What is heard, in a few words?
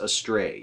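"astray" English, US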